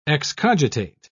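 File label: "excogitate", British English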